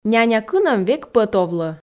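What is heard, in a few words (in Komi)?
няня кынӧм век пӧт овлӧ, Komi, female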